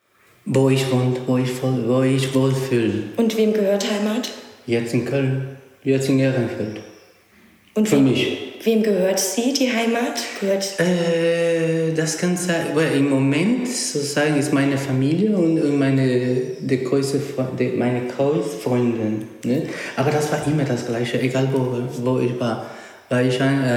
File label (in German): spanisch ecouador